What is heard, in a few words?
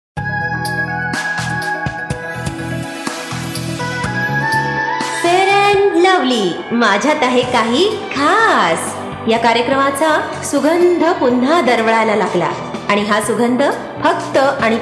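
Marathi Radio Show with reverb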